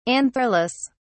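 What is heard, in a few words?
"antherless", British English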